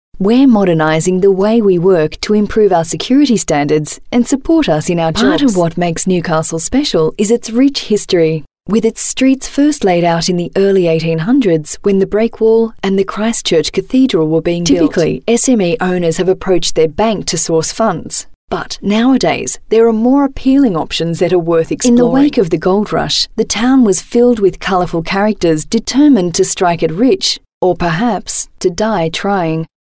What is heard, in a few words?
Corporate